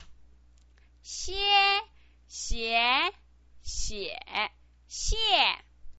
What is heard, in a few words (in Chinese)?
"xie", pinyin